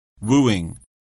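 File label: "wooing", English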